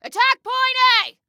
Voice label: ATTACK, Female